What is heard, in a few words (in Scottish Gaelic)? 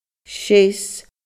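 "seas", Scottish Gaelic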